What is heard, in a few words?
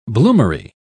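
"bloomery", British English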